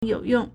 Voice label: "you3 yong4", Chinese